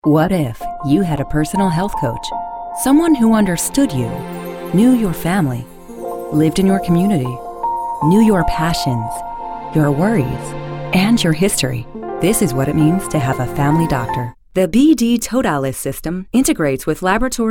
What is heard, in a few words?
30s 40s Female Narration